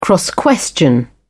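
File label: "cross-question", British English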